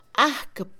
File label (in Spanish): Mixe, Mujer; enojo; Emociones; INALI